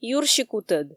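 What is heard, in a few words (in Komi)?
юрси кутӧд, Komi, female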